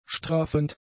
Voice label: "straffend", German; adjectives

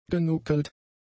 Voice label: "genuckelt", German; adjectives

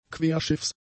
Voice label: "querschiffs", German; adjectives

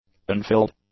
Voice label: "unfilled", english; adjectives

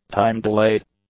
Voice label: "time-delayed", English; adjectives

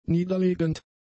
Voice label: "niederlegend", German; adjectives

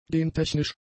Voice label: "gentechnisch", German; adjectives

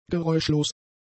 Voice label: "geräuschlos", German; adjectives

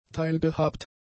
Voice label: "teilgehabt", German; adjectives

